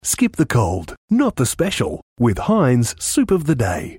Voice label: english australian promos